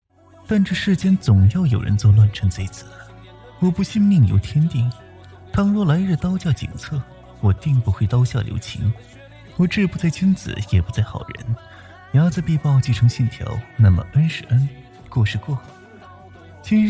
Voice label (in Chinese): 配音